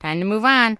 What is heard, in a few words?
Gnome Male Young NPC Pissed